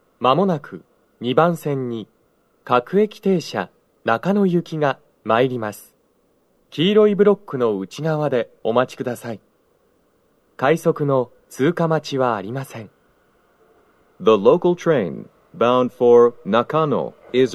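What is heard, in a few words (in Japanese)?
接近放送, 男声, 放送は「各駅停車 中野行き」です。快速 の通過待ちはありません Panasonicクリアホーンでの収録です。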